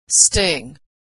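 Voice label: "sting", American English; verb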